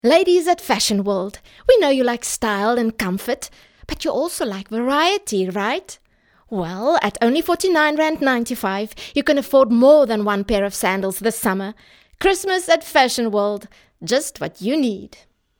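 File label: english hard sell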